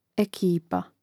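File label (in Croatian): "ekipa", hrvatski; mreznik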